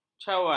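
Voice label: "cawan", Malay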